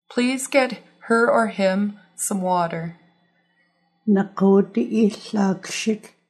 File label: Please get some water, Nuu-chah-nulth